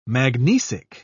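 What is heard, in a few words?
"magnesic", British English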